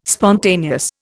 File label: "spontaneous", Indian